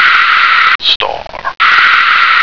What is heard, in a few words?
"star", American